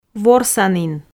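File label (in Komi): "ворсанін", Komi, female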